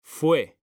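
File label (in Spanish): "fue" Spanish, male; mekanta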